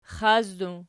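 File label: "xaci" plural, Archi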